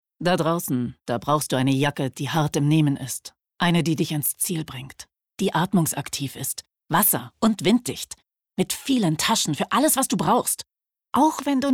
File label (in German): Outdoor Jacke Werbung; LOFT VOICES